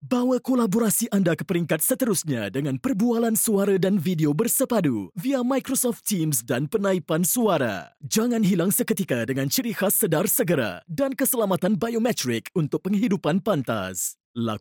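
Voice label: Malay, standard; GoLocalise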